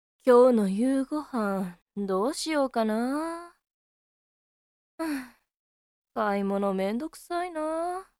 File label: girl; menndou